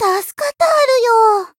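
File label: Voice healed, Japanese; lingli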